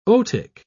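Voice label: "otic", British English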